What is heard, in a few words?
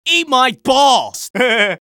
scout battlecry